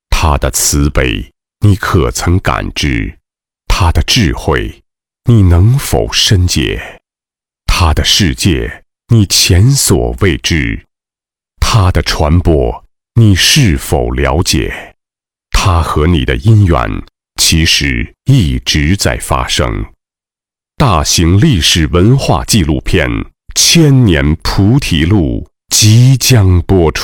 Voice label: 纪录片_人文历史; 千年菩提; 孙悦斌

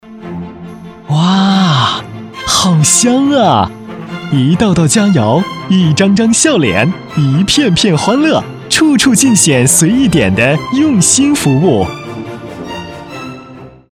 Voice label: 广告, 食品饮料, 快餐, 年轻; 随意点快餐

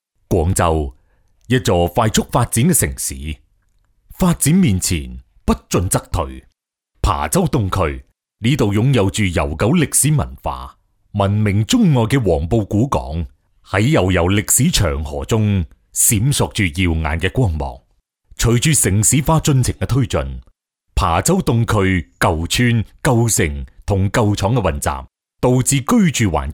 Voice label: 男粤 专题 政府, 粤语; 琶洲东区

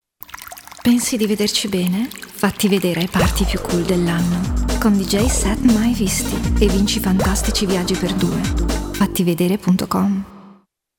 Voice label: 意大利语女 外语 小语种 时尚

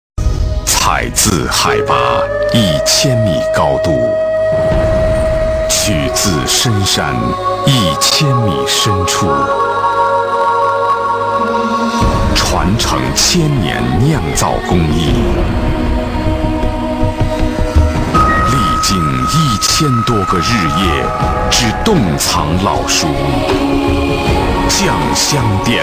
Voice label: 广告 酒类 广告 磁性; 郎酒; 孙悦斌

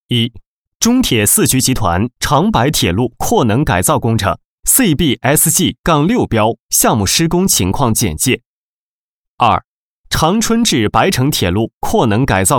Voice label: 男 多媒体 项目规划; 中铁四局长白工程

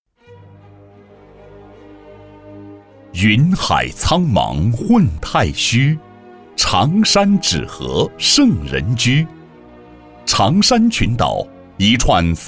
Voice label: 沉稳; 为了那份坚守

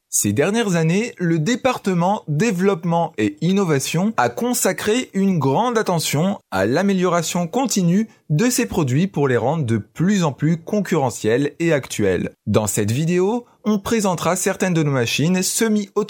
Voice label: 法语男, 外语, 小语种

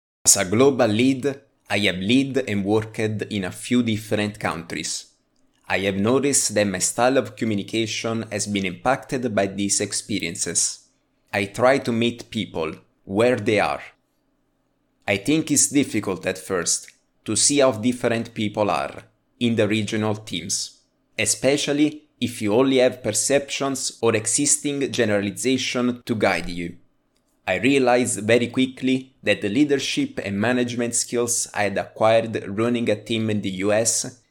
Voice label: 意大利语男 外语 小语种

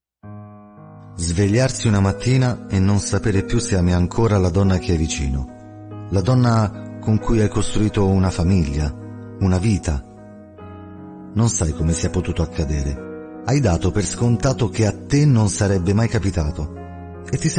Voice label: 意大利语男 外语 小语种

